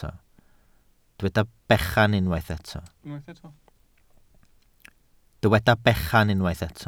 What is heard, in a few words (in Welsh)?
"bechan", Welsh